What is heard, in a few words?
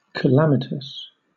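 "calamitous" English, British accent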